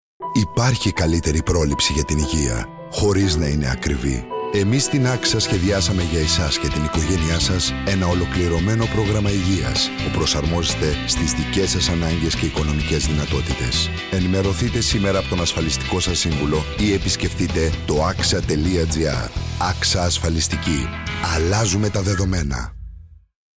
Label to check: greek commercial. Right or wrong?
Right